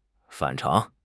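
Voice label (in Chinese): "反常", Chinese; HSK6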